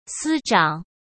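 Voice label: "司长", Mandarin Chinese; chinese-flashcards; hsk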